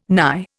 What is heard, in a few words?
"nye", Indian